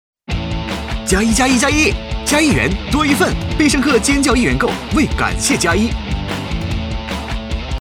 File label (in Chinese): 病毒广告; 必胜客